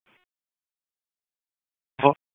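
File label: Police Southeast District Dispatch; Raleigh; wake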